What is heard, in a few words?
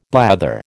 "blather", American